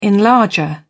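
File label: "enlarger", British English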